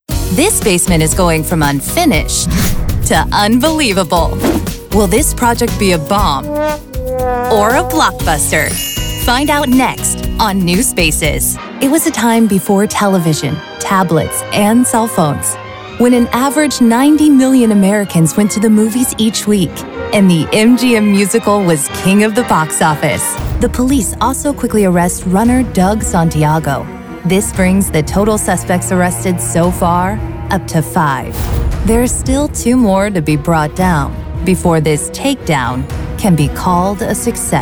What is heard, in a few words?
vo broadcast narration